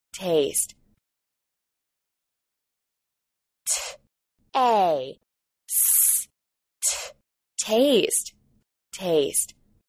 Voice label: "taste", English

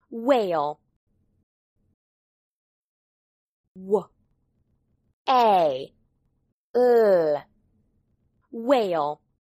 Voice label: "whale", English

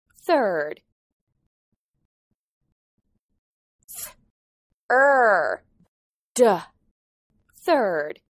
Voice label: "third", English

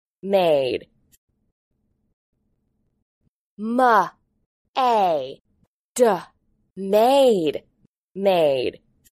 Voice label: "maid", English

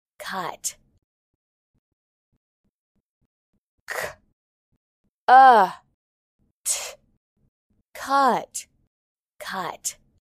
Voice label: "cut", English

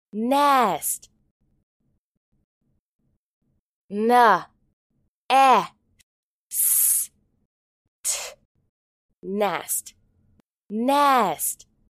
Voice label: "nest", English

